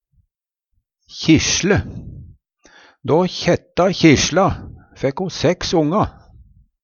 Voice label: kjisle, Numedalsmål

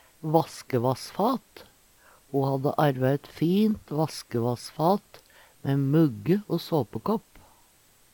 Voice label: vaskevassfat, Numedalsmål; Ho hadde arva ett fint vaskevassfat mæ mugge o såpekåpp.

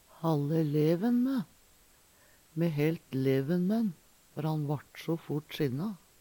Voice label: halle leven mæ, Numedalsmål; Me helt leven mæ`n før han vart so fort sinna.

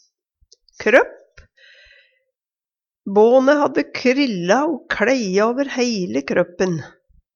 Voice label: kropp, Numedalsmål; Substantiv hankjønn

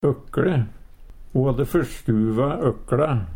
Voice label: "økLe", Numedalsmål; Substantiv hokjønn

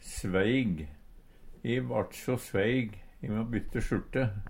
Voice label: sveig, Numedalsmål; klam,sveitt; Adverb

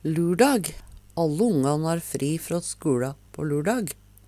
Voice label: lurdag, Numedalsmål; Substantiv hankjønn; Tida (dagen, året, merkedagar)

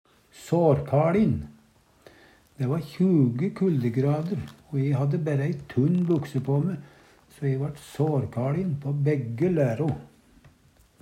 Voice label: sarkaLin, Numedalsmål

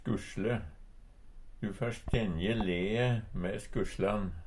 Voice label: "skusLe", Numedalsmål; Substantiv hankjønn

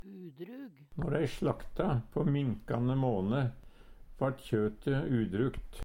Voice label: "udrug", Numedalsmål; Når dei slakta på minkande måne vart kjøte udrukt.; Adjektiv

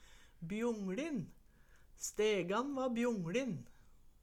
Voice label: bjongLin, Numedalsmål

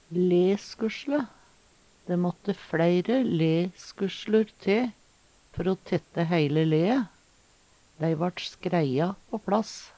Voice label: "leskusjle", Numedalsmål

